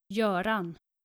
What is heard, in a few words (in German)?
"goeran", nordische jungennamen